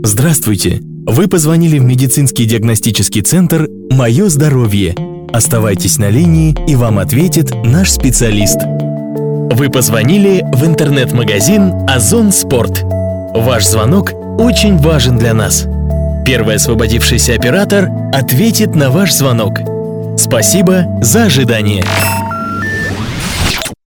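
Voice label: Russian, interactive voice response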